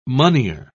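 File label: "moneyer", English, British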